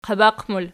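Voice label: "qabaq" plural, Archi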